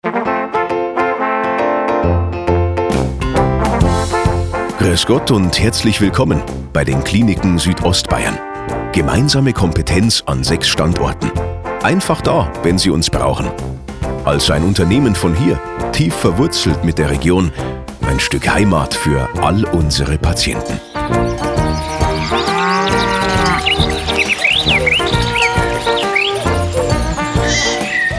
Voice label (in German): Telefonansage; Audiologo; Kliniken Suedostbayern